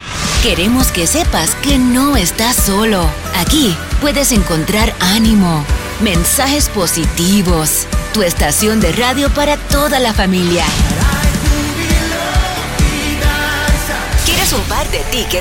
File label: Christian SPANISH